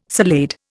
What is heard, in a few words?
"salade", Indian